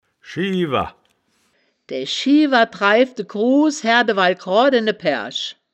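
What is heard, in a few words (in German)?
"schiewer", wittlicher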